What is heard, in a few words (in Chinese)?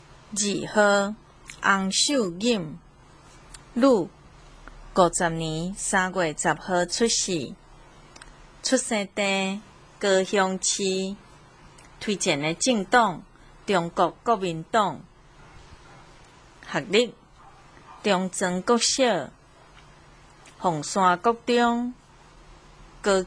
台語; 高雄市; 高雄市市長議員有聲選舉公報; 第十一選區